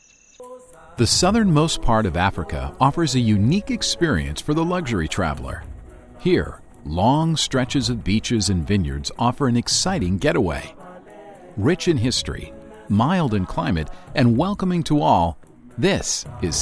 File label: MALE VOICE, narration